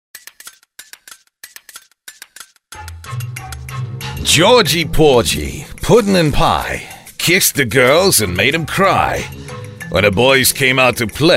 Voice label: Character Voice